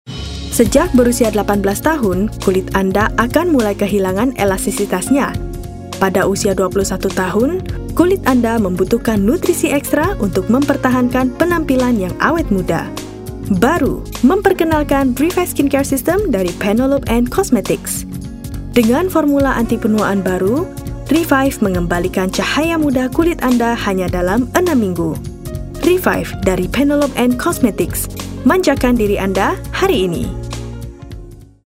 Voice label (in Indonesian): Bahasa Indonesia; Bodycare